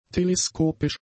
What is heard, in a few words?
"teleskopisch", German; adjectives